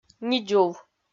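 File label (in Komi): "нидзув", Komi, female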